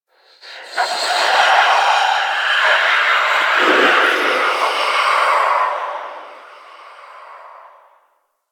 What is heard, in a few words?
Attack Vocal; Warframe; Stalker Acolyte